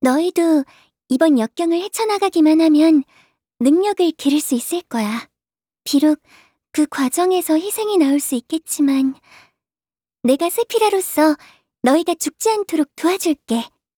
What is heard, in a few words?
Announcer cheer